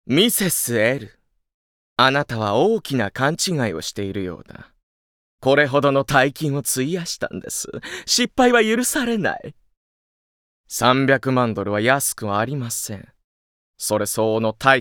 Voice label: Dialog